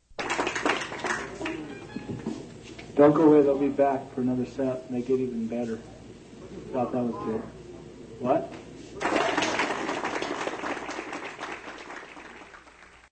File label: Announcer; UCSD Coffeehouse